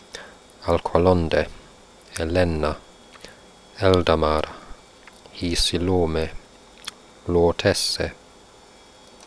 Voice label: quenya stress